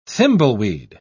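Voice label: "thimbleweed", British English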